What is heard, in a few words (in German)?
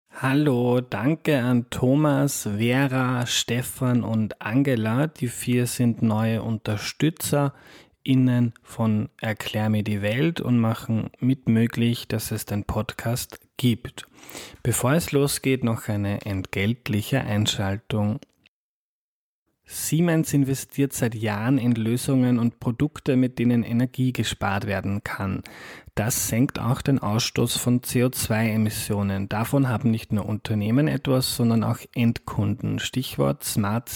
werbung; siemens